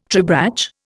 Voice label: "tribrach", Indian